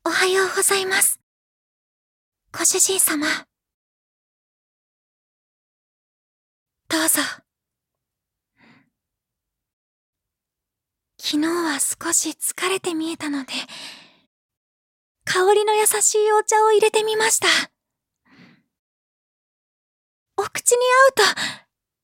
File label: female